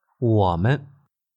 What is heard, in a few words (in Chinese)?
wo3 men0, neutral tone in mandarin